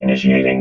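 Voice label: "initiating", robot voice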